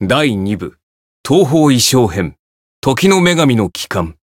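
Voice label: Voice Japanese; claude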